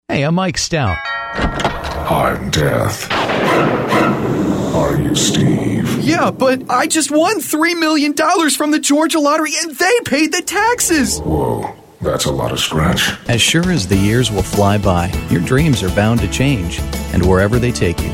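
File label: male, Commercial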